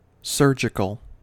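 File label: "surgical", American English; pronunciations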